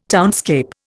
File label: "townscape", Indian